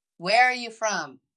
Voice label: Voice Acting es F